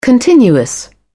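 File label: "continuous", British English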